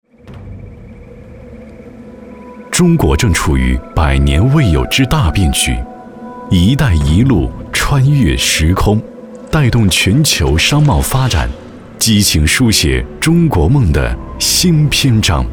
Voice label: 男 专题 解说; 南大门

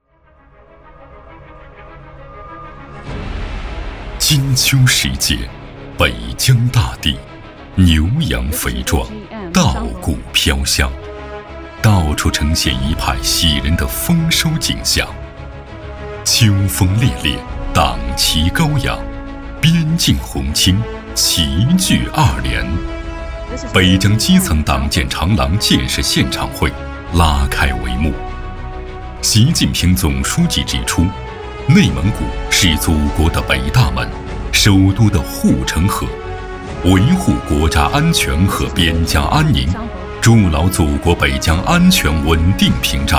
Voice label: 男 专题 党政 片 大气厚重; 全区边境党建现场会

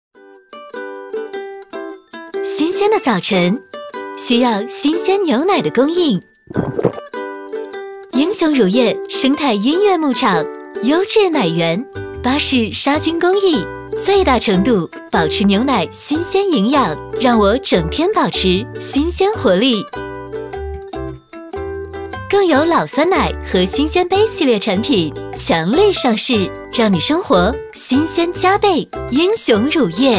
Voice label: 女 广告 乳液 自然清新; 英雄乳液

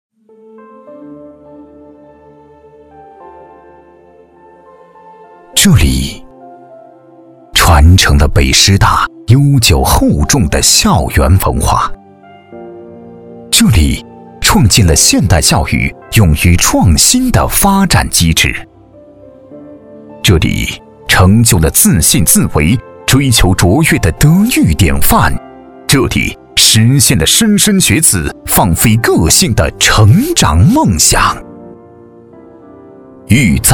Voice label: 男 学习专题; 北京师范

